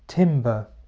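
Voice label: "timber", English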